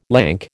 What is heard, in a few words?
"lank", American